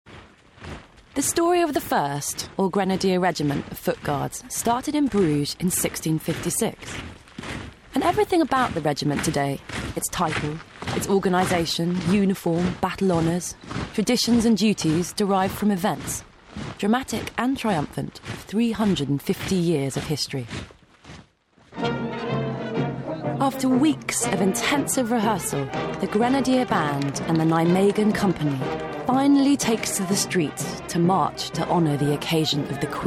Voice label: narrative